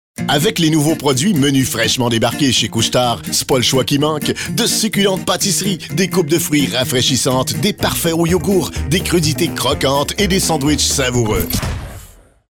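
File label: French Canada male voice over, sales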